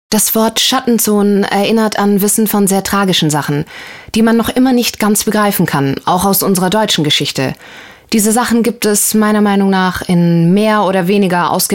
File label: voiceover, frauen